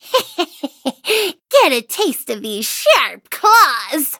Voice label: battle en